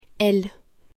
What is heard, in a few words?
"ELLE", French, female; personal pronouns pronoms personnels